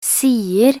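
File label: "sier", Norwegian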